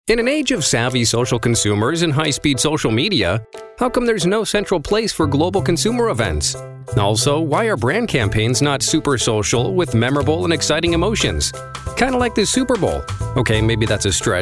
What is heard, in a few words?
normal guy